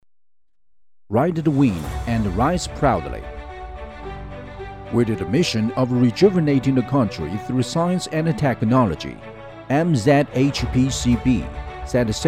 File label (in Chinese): 科技产品宣传